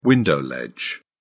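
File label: "window ledge", British English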